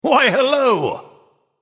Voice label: male nightborne greetings

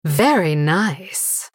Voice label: female blood elf wow

